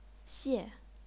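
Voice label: "xie", chinese pinyin, fourth tone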